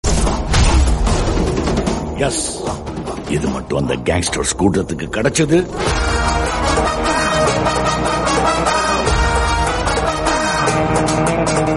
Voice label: Tamil Dialogue Ringtone; Mark Antony; Vishal